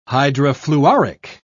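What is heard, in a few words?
"hydrofluoric", British English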